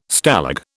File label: "Stalag", British